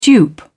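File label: "dupe", British English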